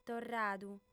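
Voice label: "torradu", Sardinian, female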